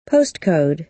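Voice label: "postcode", American English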